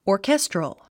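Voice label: "orchestral", English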